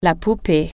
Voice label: doll, french